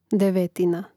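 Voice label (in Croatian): "devetina", Hrvatski; mreznik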